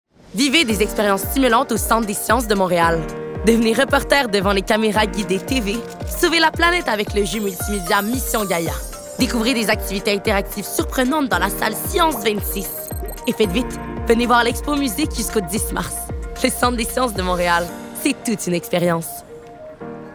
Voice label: voix publicitaires complet

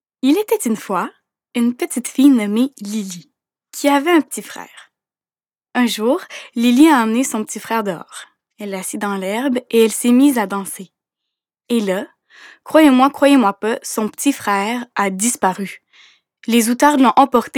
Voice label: Narration Conte

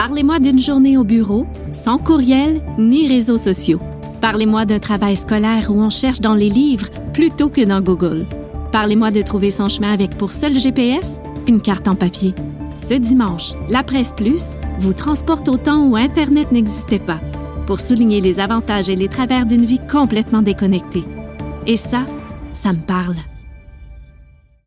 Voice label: Demo vocal; La Presse

